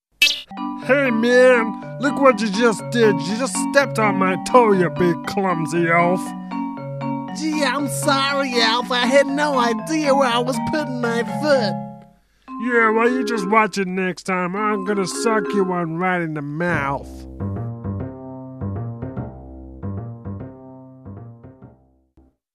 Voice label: animation